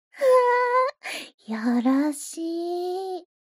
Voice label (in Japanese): mob 女子 台詞